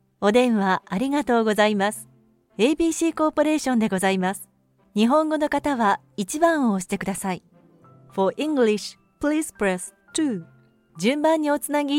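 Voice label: Japanese IVR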